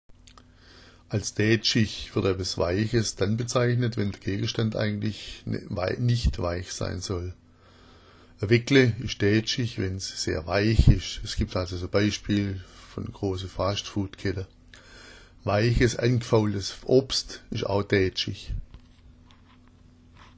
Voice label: daetschich, schwaebisch